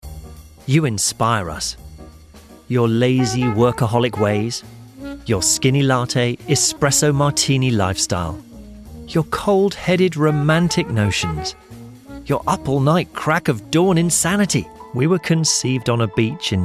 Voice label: commercial